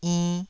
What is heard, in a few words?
"inn2", taiwanese syllables